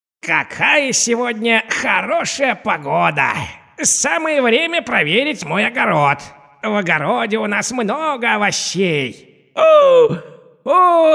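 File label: storyteller voice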